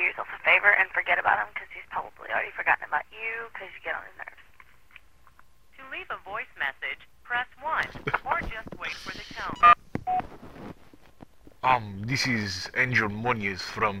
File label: prank phone call